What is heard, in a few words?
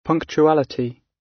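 "punctuality", British; Time, history; Thời gian, lịch sử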